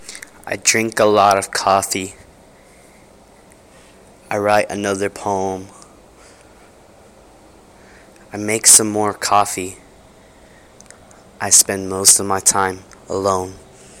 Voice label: short lil sad poem

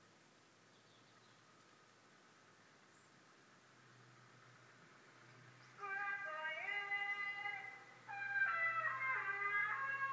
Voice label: noisy scrap iron man; i think he comes every day

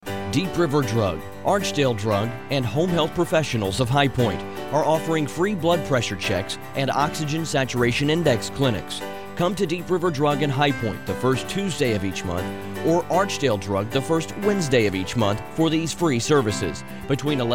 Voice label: radio commercial; Archdale Drug

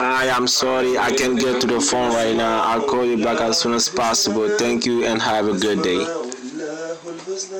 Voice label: professional voicemail message

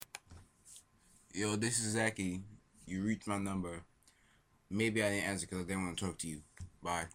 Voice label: unprofessional voicemail

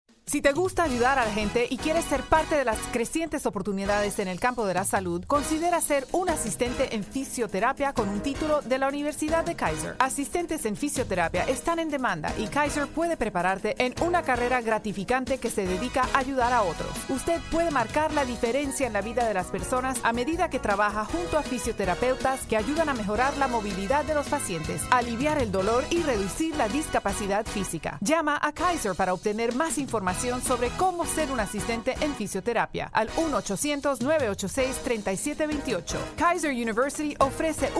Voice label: spanish vo talent

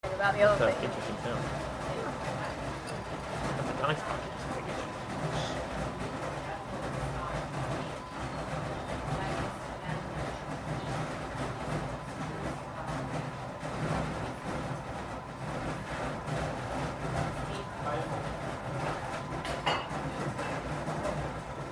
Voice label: mysterious noise and a little conversation; elephant royale

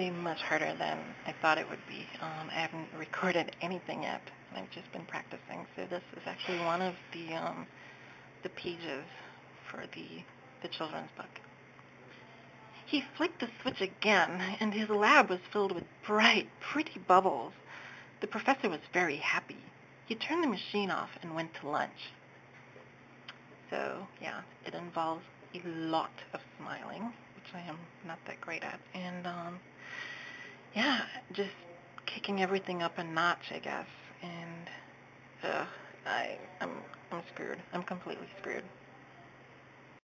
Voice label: narration practice

